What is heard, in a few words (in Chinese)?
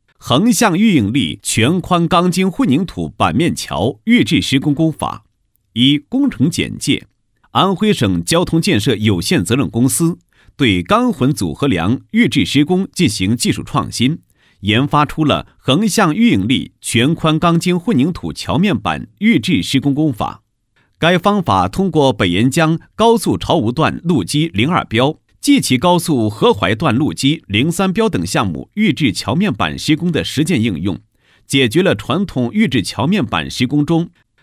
男; 横向预应力全宽钢筋混凝土桥面板预制施工工法